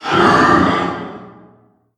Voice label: vox pain

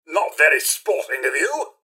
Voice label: assault, robot; mrhandy

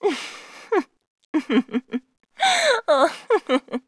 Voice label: threat; macha

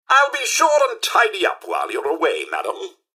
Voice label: robots dialog goodbye; mrhandy; npc

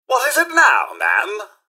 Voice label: generic robot greeting; mrhandy; npc

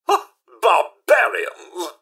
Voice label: observe combat, robot; mrhandy

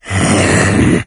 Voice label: chatter, morgue; doom3

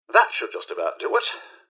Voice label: idle chatter, mrhandy; npc

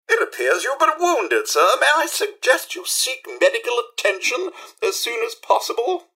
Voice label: robots dialog hello; mrhandy; npc

